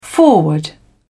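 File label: "forward", English, British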